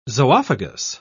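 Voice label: "zoophagous", British English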